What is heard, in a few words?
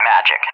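"Magic", English, USA; CallSign; DCS; Allied Flight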